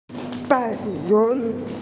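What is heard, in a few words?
"bai2yun2", Mandarin Chinese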